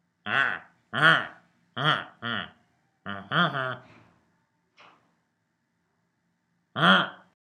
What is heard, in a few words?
Mumbled Voice